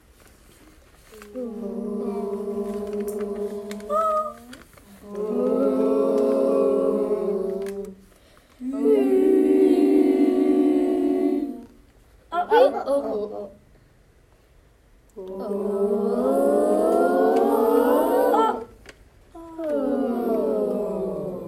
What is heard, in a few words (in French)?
Interprétation voyelle